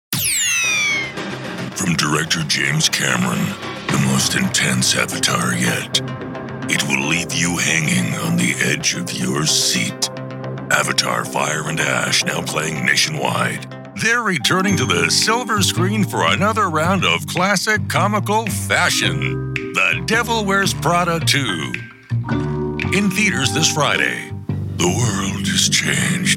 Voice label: american male movie trailer

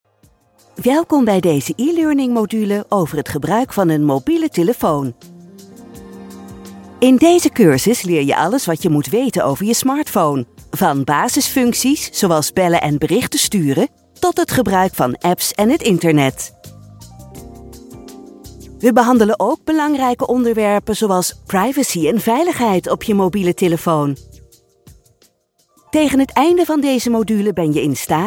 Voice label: dutch female corporate

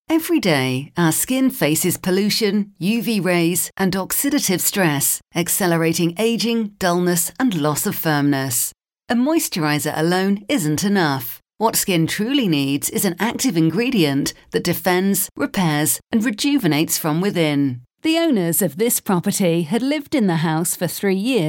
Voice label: narration

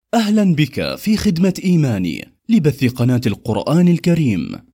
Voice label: arabic male telephone

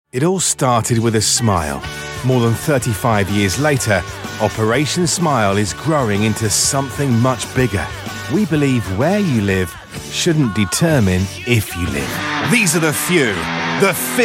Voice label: straight narrative, Edgy Cool Voiceover

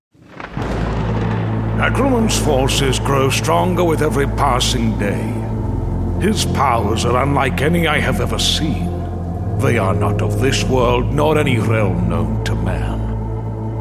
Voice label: elder wizard fantasy, voiceover

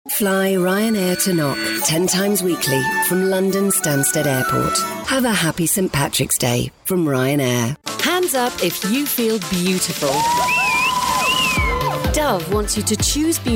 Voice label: brand portfolio, Strong Warm Voice

